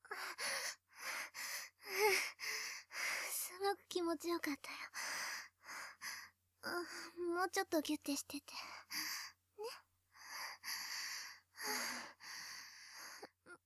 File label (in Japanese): ピロートーク; 嫁さんとピロートーク; ダイヤモンドフェア